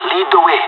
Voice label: following friend, pirate; Counter Strike Model Pack; CS:GO

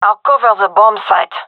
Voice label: guarding bombsite, fem; vo

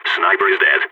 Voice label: sniper killed, balkan

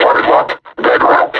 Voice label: announce attack, combine suppressor; Half Life Alyx

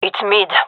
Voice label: vo agents gendarmerie fem

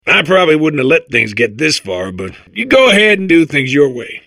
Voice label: babble; Portal 2

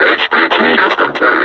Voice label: announce kill player, combine suppressor; Half Life Alyx

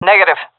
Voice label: negative, seal fem; vo; Counter Strike Model Pack; CS:GO

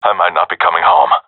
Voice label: scared, seal diver; agents

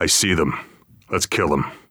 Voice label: radio enemy spotted, heavy; vo; Counter Strike Model Pack; CS:GO; phoenix coop

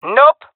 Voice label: negative, jungle fem epic; vo; agents; Source Model Packs; Counter Strike Model Pack; CS2

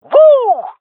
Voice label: cheer, jungle fem; vo; agents; Source Model Packs; Counter Strike Model Pack; CS2; jungle fem epic

